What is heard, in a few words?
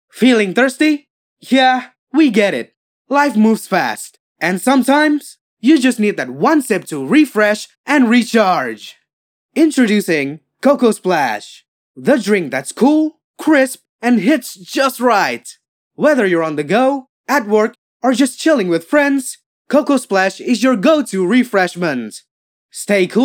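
CASUAL English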